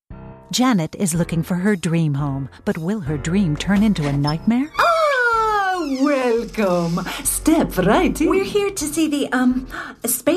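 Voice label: animation; home studios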